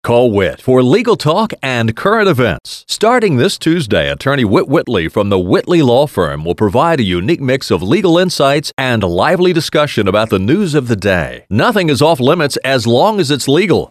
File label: Promo